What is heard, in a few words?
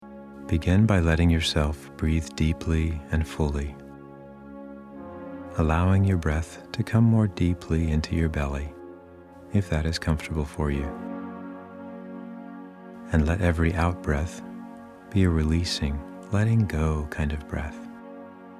Soft relaxing narration